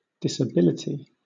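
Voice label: "disability", British English; pronunciations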